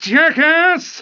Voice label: "jackass" male, pedestrian; cs2d; cityrp

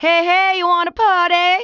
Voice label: female hey want party; cs2d; cityrp; pedestrian

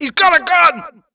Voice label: "he's got a gun", pedestrian; cs2d; cityrp

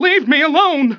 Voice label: male "leave me alone", pedestrian; cs2d; cityrp

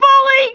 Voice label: female bully; cs2d; cityrp; pedestrian

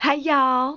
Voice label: "hey" female, pedestrian; cs2d; cityrp

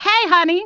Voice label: "hey" female, pedestrian; cs2d; cityrp

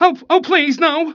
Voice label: male please no; cs2d; cityrp; pedestrian

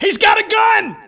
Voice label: "he's got a gun", pedestrian; cs2d; cityrp

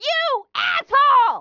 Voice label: female "you asshole", pedestrian; cs2d; cityrp

